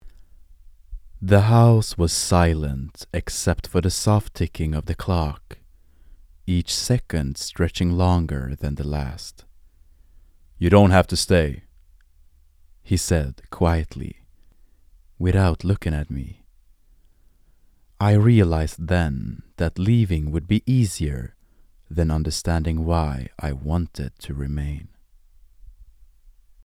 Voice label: Narration English Sample, Deep, warm and naturally engaging Swedish male voice; English Audiobook Narration